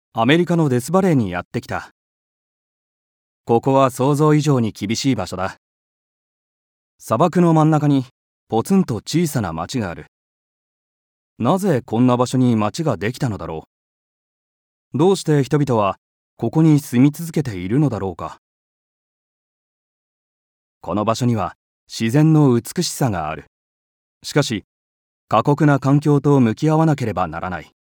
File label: Narration